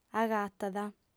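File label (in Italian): "agatat", lingua sarda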